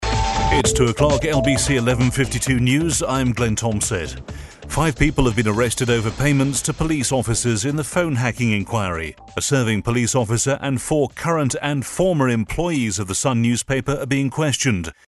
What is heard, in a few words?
news